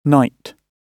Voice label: "knight", English